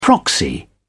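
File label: "proxy", British English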